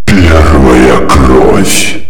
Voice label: first blood ultimate, male